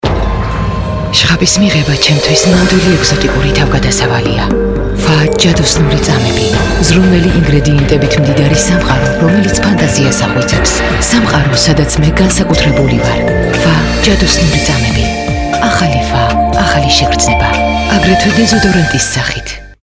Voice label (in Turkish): Gurcuce Kadin; seslendirme